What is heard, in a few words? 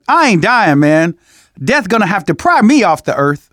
zombie generic long, survivor voice; manager; Left 4 Dead 2; left4dead2 dlc2